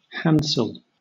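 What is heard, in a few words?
"handsel", British English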